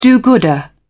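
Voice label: "do-gooder", British English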